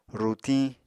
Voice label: "rutiñ", Apatani